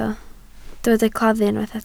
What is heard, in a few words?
"claddu", Welsh